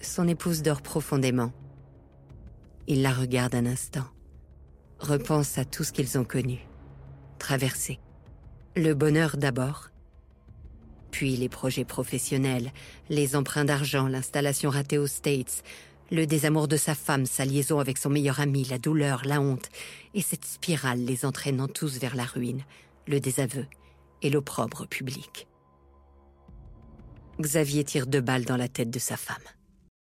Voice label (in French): narration; xavier dupont de ligones